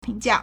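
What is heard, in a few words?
"ping2jia4", Mandarin Chinese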